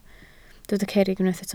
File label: "cerrig", Welsh